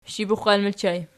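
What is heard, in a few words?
sshibuxal ergative plural, Archi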